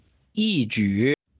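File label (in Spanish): "义举", chino mandarin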